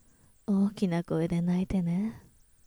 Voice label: magic, rpg voice